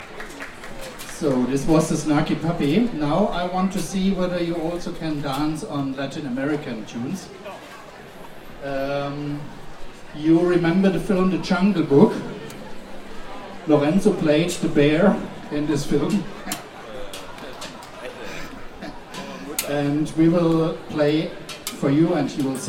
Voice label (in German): Ansage